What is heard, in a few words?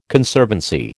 "Conservancy", American